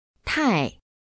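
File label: "tai tai" Chinese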